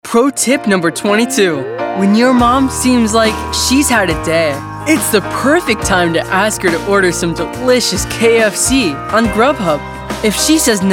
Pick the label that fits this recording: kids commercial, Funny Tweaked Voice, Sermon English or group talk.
kids commercial